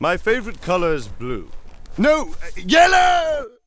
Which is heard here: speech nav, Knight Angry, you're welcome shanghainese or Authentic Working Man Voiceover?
Knight Angry